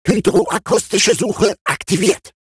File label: robot Consumable Hydroacoustic Search; World Of Warships